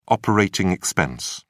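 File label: "operating expense", British English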